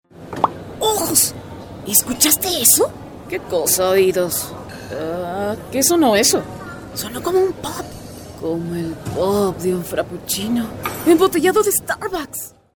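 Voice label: Spanish, female, character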